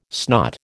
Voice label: "snot", American

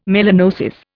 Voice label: "melanosis", Indian

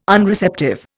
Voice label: "Unreceptive", Indian

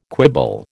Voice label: "quibble", American

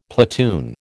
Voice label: "platoon", American

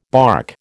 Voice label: "barque", American

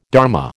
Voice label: "dharma", American

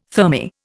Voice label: "thermae", Indian

